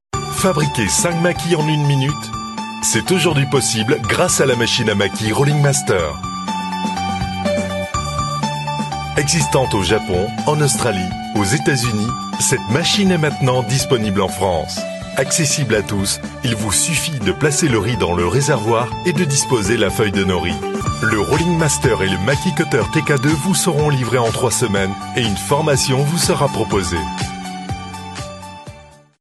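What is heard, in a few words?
male, French France, narration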